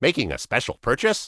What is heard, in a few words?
Gnome Male Standard NPC Vendor